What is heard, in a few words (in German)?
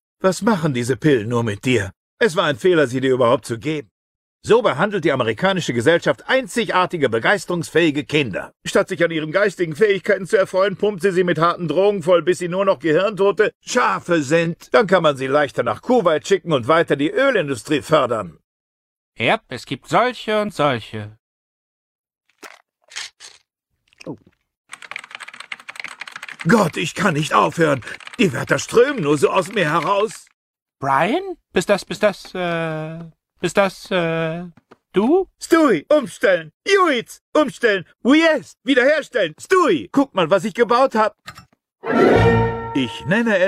Dynamisch